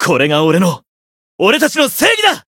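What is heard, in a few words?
variable chant, Japanese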